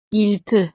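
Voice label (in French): "il peut", French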